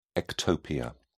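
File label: "ectopia", British English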